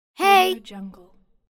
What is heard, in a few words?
girl saying hey